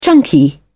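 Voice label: "chunky", British English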